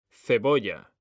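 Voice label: "cebolla", Spanish, male; mekanta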